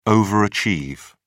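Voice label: "overachieve", British English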